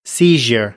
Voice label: "seizure", American English; ɚ - əɹ